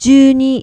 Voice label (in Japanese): "juuni", numbers